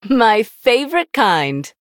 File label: Female Human MIRROR GREETINGS; en